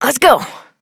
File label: Horse Start Adult; Duviri